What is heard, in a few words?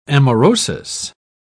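"amaurosis", English, British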